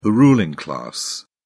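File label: "ruling class", English, British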